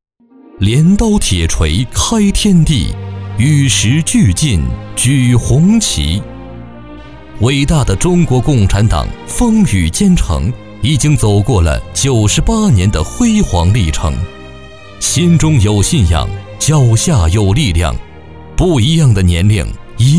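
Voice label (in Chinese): 国语 男声 专题片-党政 大气浑厚磁性 沉稳 娓娓道来; 不忘初心牢记使命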